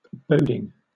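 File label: "boding", English, British accent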